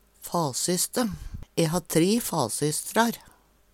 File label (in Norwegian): fasyste, Numedalsmål; E ha tri fasystar.; Substantiv hokjønn